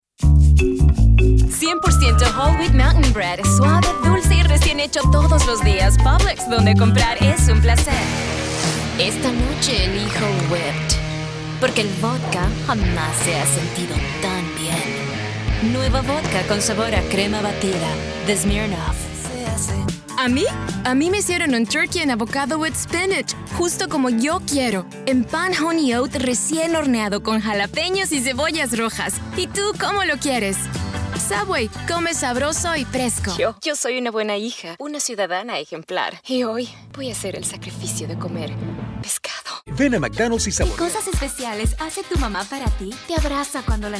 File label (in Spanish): Spanish